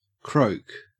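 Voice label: "croak", Australian English; pronunciations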